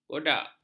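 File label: "godak", Malay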